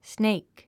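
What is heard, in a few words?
"snake", English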